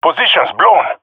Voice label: taking fire, jungle male epic; player vo; Counter Strike Model Pack; CS:GO

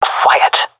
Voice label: request quiet, vo fem; agents; Source Model Packs; Counter Strike Model Pack; CS2

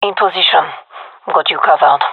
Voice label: in position, gendarmerie fem; vo

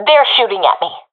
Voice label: takingfire, professional fem; vo; Counter Strike Model Pack; CS:GO

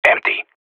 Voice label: radio bot clear; Counter Strike Model Pack; CS:GO

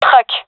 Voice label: truck, fem; gendarmerie fem epic

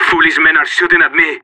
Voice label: radio taking fire; Counter Strike; CS:GO; separatist

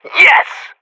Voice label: radio bot cheer; Counter Strike Model Pack; CS:GO

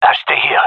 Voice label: gendermerie male; waiting here; Counter Strike Model Pack; CS:GO

